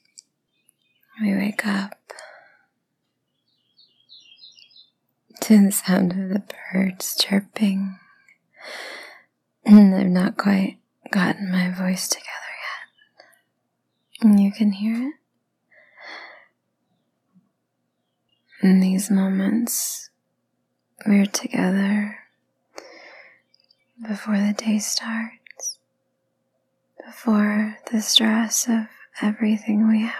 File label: intimate, erotic, sweet, sleepy; F4M; sleepy morning voi; Losing Ourselves in One Another an Erotic Morning